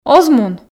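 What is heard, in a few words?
"оз мун", Komi, female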